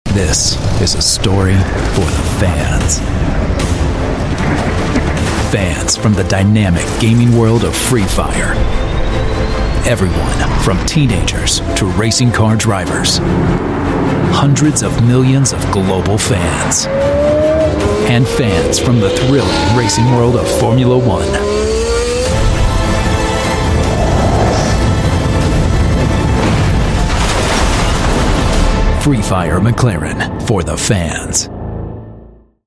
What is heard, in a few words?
US English; FreeFire McLaren